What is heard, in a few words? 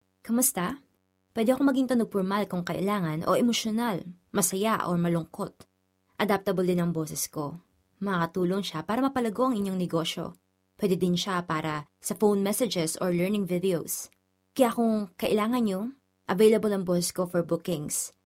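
Tagalog, general; GoLocalise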